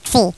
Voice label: xi, Greek